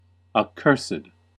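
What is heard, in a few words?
"accursed", US English; pronunciation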